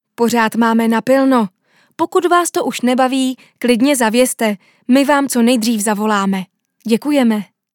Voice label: ivr; kofola